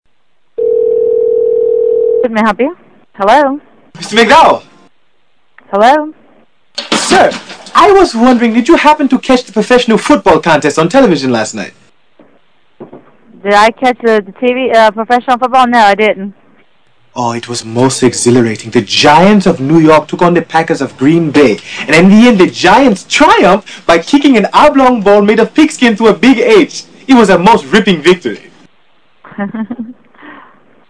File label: prankcall